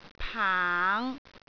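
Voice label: "paang5", Cantonese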